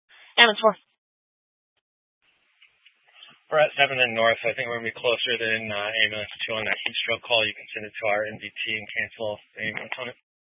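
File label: Fire Dispatch, Scanner audio recording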